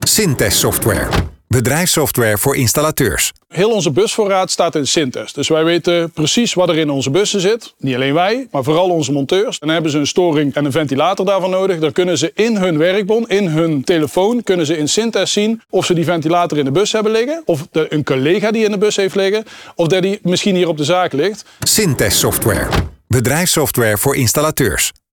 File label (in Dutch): radiocommercial; Syntess Software